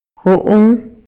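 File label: Maya, male; RELACIONES POLITICAS Y SOCIALES; INALI; MayaYuc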